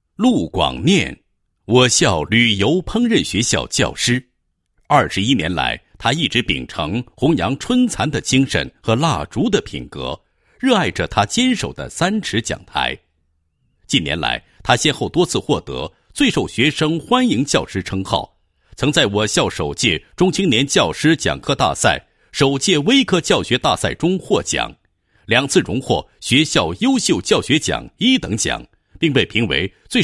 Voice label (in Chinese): 男 人物; 金讲台人物展播